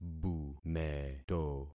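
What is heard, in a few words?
"bumeto", concatenated syllables; Affixing experiment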